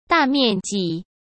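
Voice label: "大面积", Mandarin Chinese; hsk; chinese-flashcards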